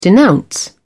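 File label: "denounce", British English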